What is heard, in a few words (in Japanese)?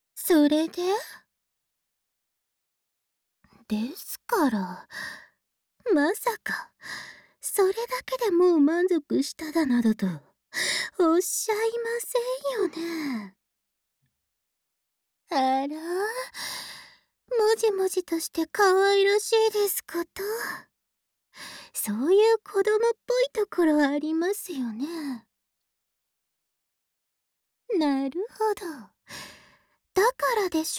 Whisper むすめ